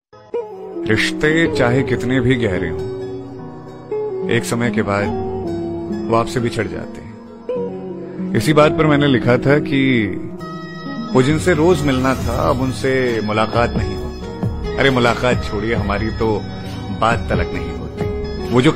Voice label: Sad Shayari Ringtone